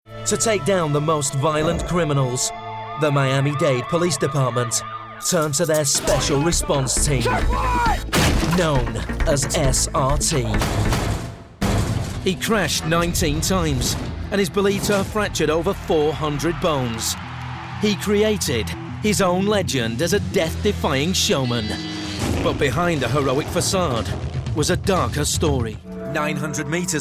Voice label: Promo Factual

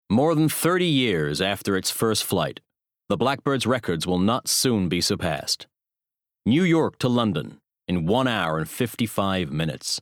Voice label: American Bookread; Blackbird

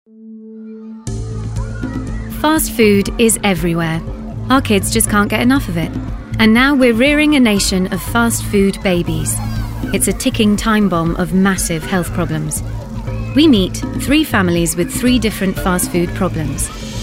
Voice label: Narrative Reel; Documentary Showreel

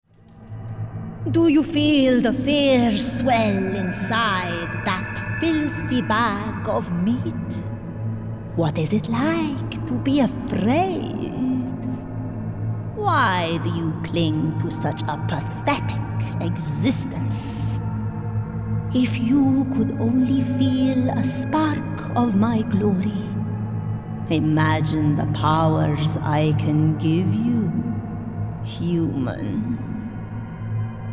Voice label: Character; Mystic

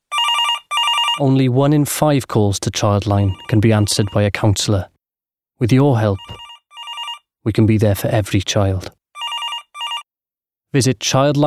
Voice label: COMMERCIAL Welsh; Childline

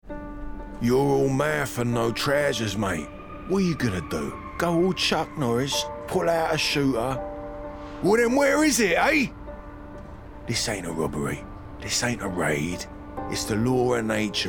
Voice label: Cockney

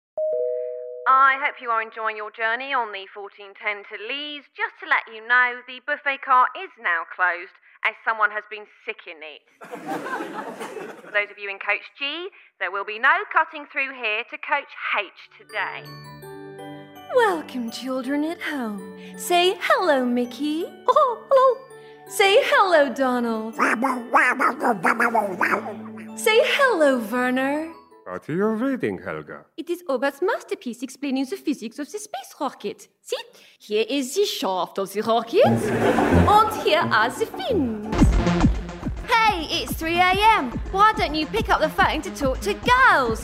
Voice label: Radio Comedy voices various

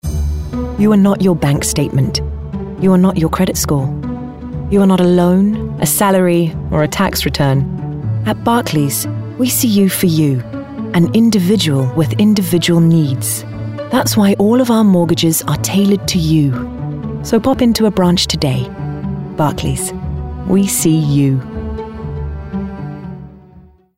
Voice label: Commercial; Barclays

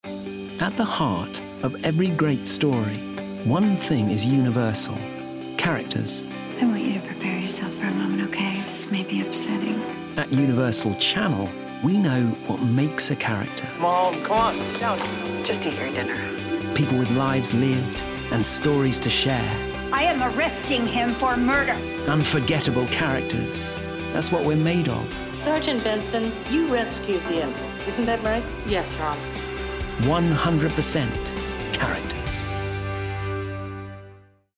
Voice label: Promo; Universal

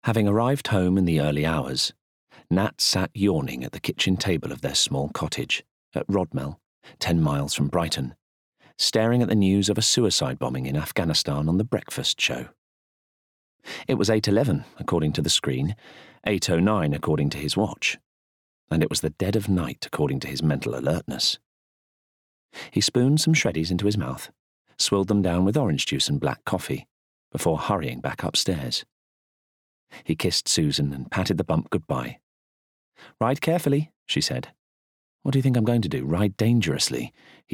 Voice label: Bookread; Dead Tomorrow

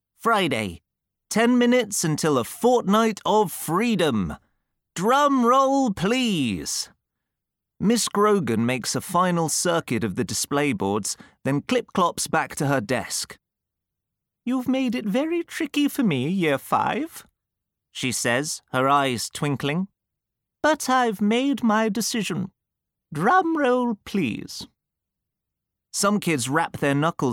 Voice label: Audiobook; TheDayMyDogGotFamous

